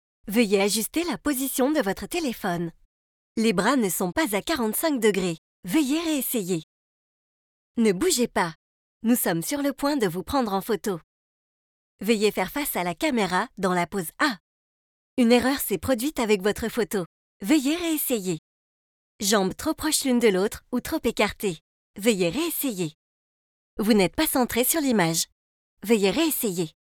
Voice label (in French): Tutorial pour application FR, voix off e-learning; voix off e-learning serious game